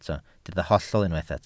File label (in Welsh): "hollol" Welsh